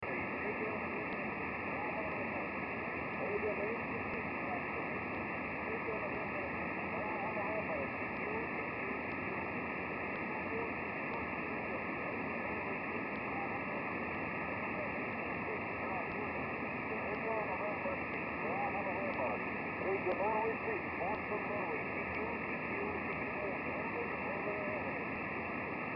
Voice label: EME SSB CQ